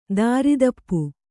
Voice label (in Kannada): "ದಾರಿದಪ್ಪು", kannada